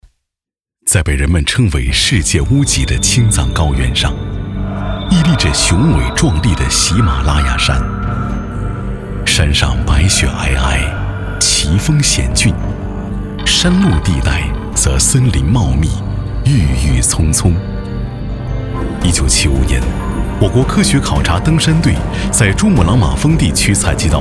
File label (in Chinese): 纪录片 喜马拉雅山